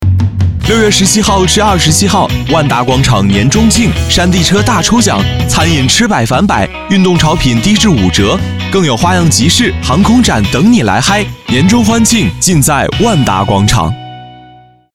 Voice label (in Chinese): 口播; 万达广场